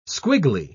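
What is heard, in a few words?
"squiggly", British English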